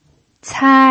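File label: "cai", pinyin, first tone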